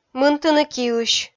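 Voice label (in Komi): "мынтыны киысь", Komi, female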